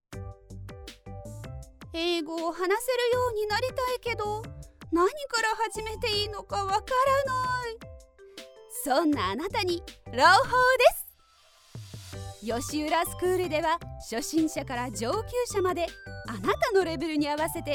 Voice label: Commercial BGM